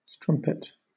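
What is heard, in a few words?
"strumpet", British English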